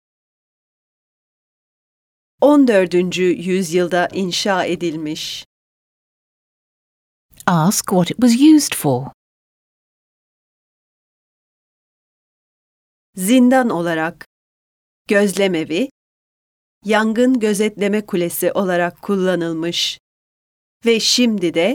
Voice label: Speaking, Turkish; Complete Turkish Teach Yourself